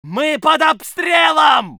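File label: voice soviet infantry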